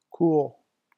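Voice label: "cool", French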